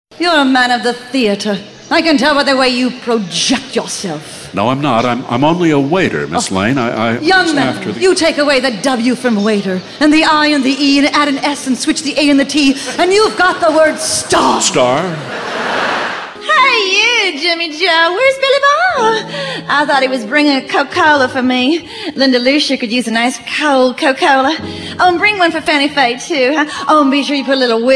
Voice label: Character Voice Sampler; A Prairie Home Companion